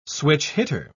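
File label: "switch-hitter", British English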